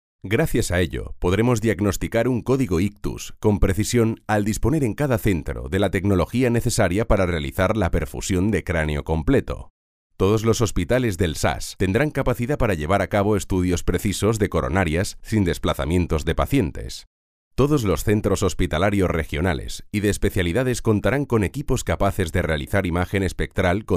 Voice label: locutor spanish voiceover; grabación de vídeo corporativo